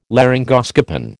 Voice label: "laryngoscopen", American

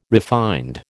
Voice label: "refined", American

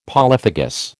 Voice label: "polyphagous", American

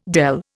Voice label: "dele", Indian

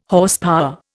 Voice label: "Horsepower", Indian

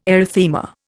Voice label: "erythema", Indian

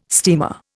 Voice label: "steamer", Indian

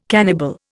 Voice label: "cannibal", Indian

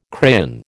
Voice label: "crayon", American

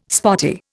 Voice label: "spotty", Indian

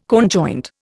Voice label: "conjoint", Indian

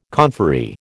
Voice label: "conferee", American

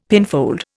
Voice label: "pinfold", Indian; Dictionary

